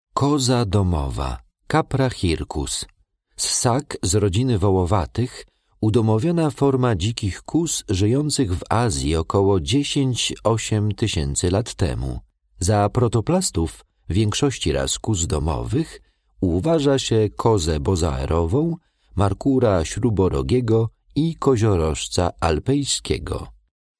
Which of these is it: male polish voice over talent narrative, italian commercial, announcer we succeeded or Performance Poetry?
male polish voice over talent narrative